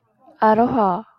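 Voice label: "aloha", Japanese, female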